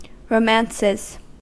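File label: "romances", US English; pronunciations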